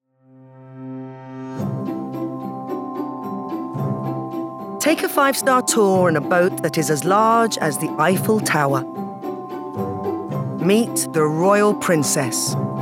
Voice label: documentary; Cruise